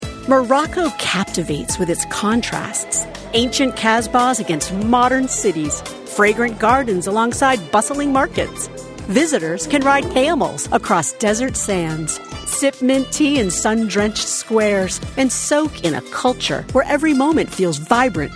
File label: Narration